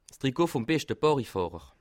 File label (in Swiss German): "barrifahrer", Alsace